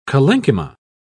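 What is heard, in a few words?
"collenchyma", British English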